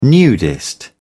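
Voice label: "nudist", British English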